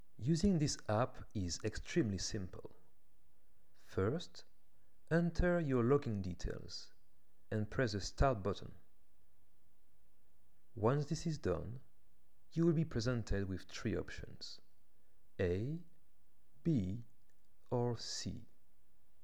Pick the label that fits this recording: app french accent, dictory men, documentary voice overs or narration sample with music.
app french accent